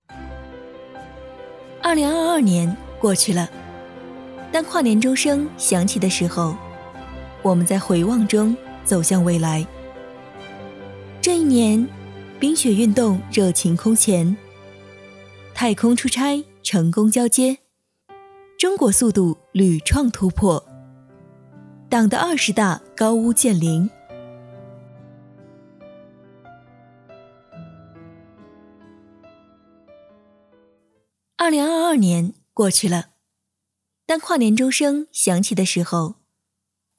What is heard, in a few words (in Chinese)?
女 走心 立体质感; 2022年过去了; 青春活力女配音